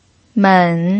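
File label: "men", pinyin, third tone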